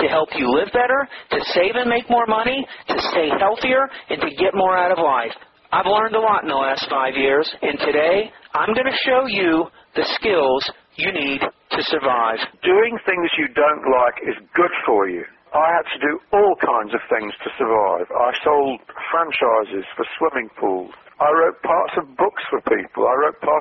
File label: Interview